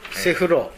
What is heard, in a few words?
"sefuro", minamiyamagata; 家に備え付けてあるお風呂（五右衛門風呂などではなく）。